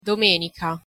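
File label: "domenica", Italian pronunciation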